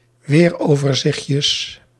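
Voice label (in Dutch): "weeroverzichtjes", Dutch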